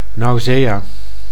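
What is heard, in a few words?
"nausea", Dutch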